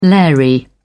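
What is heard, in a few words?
"lairy", British English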